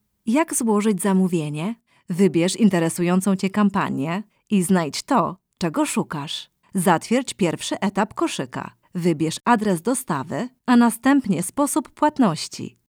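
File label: Polish, female, corporate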